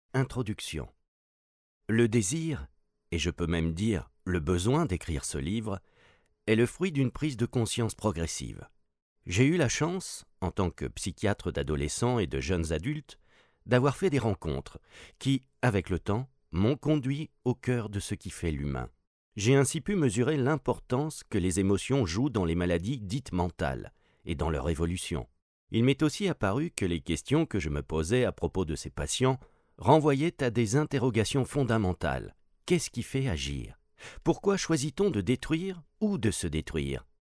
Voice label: AUDIOBOOK